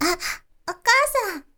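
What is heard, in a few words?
"okaasan", girl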